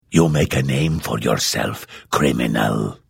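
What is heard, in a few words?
Hello, Vo; The Elder Scrolls III - Morrowind; Morrowind GOTY GOG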